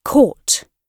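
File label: "court", British English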